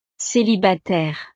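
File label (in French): "celibataire", French; familia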